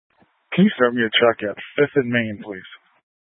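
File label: Incident Tac 3, Scanner audio recording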